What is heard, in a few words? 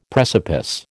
"precipice", American